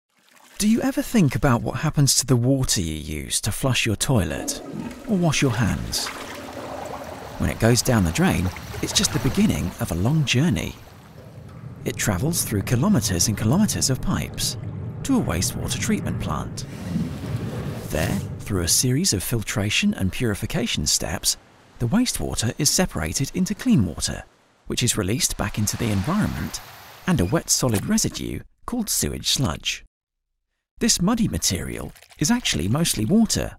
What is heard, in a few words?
Explainer Video; Trea Tech Reinventing liquid waste disposal for a sustainable future